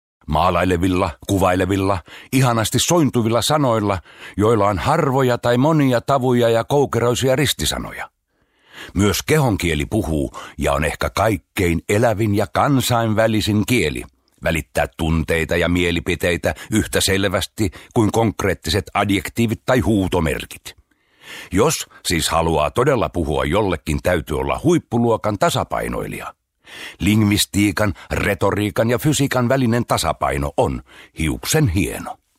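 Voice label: finnish male narration; voice talents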